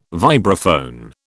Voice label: "vibraphone", British

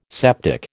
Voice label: "septic", American

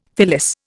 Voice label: "fillis", Indian

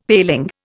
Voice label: "paling", Indian; Dictionary

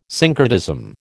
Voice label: "syncretism", American

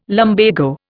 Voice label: "lumbago", Indian

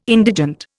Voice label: "indigent", Indian

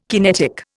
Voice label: "kinetic", Indian

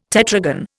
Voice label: "tetragon", Indian

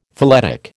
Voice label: "phyletic", American

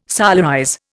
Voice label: "solarize", Indian

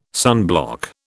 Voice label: "sunblock", British

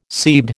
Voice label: "seabed", American

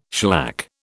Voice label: "shellac", British

